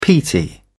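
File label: "peaty", British English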